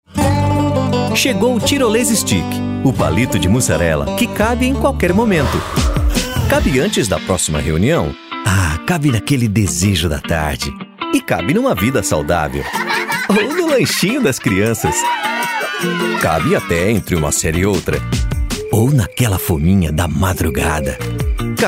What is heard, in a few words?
portuguese brazilian promos